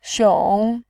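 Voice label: "xiong", Mandarin Chinese, tone 3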